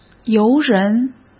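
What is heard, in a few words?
"游人", Mandarin Chinese; hsk; chinese flashcards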